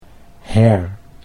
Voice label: "her", Dutch